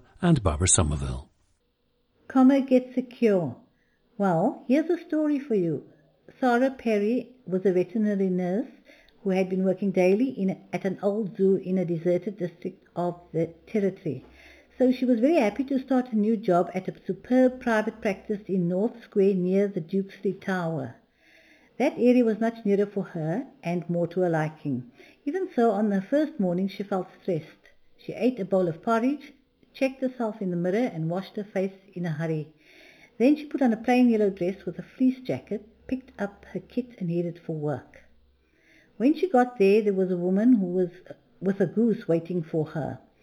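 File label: south africa, dialects of English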